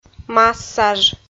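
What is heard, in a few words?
"массаж", Komi, female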